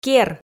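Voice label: "кер", Komi, female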